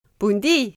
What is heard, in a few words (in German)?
romanisch